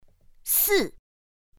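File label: "sì", Mandarin Chinese pinyin; pinyin2; pinyinji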